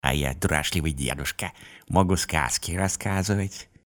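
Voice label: storyteller voice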